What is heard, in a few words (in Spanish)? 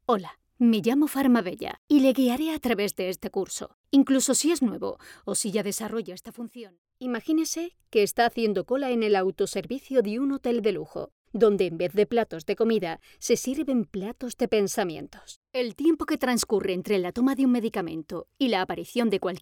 E.learnings Centralita Locutora